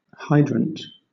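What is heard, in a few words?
"hydrant", British English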